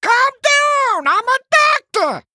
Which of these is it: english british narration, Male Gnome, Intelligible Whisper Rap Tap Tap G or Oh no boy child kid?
Male Gnome